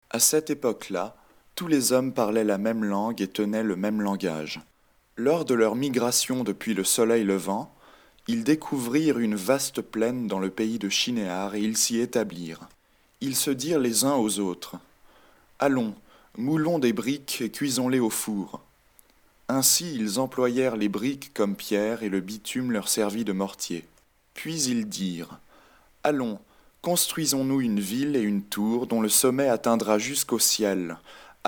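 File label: French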